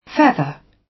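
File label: "feather", British; Flora and fauna, agriculture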